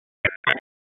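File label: Dispatch 1, P25; WSO DISP 1; wake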